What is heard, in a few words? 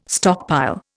"stockpile", Indian